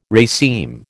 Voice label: "raceme", American